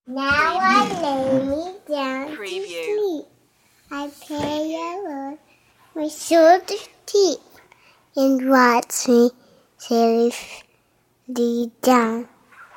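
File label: Toddler Bed Time Prayer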